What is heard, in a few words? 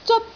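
"zeot1", Cantonese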